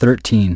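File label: "thirteen", English; iOS 4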